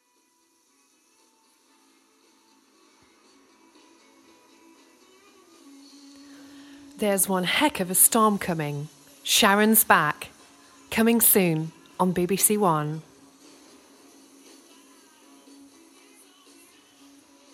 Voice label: Drama trailer; BBC